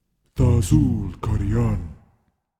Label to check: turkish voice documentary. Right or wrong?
Wrong